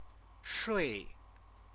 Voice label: "shui", Chinese

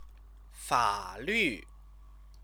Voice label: "falu", Chinese

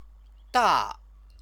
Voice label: "da", Chinese

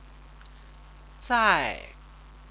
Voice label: "zai", Chinese

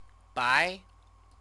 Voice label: "bai", Chinese; white; stative verb

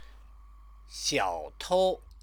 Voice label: "xiaotou", Chinese

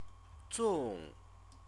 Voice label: "zong", Chinese; zòng dumpling made o

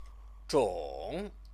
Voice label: "zhong", Chinese; 种; type of…; measure word

